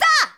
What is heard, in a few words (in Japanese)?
shonen5 kougeki